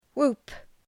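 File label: "whoop", English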